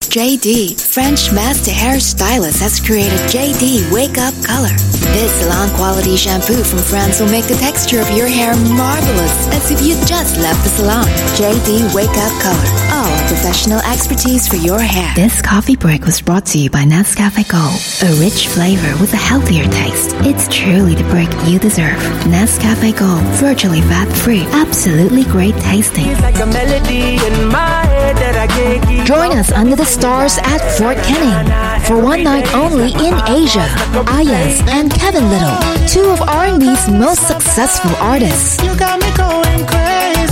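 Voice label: Asian English F Commercial Reel, Singaporean Female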